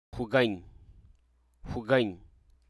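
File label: Hruso Aka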